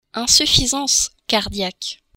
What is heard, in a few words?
"insuffisance", French